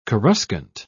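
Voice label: "coruscant", British English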